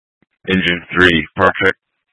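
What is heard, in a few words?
Incident Tac 1, Scanner audio recording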